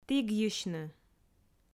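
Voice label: "тігйысьны", Komi, female; audio dict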